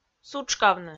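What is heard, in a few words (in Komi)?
"сутшкавны", Komi, female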